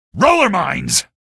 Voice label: alert rollers, male; video games; npc; source engine; episodic; episode 1